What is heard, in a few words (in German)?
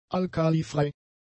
"alkalifrei", German; adjectives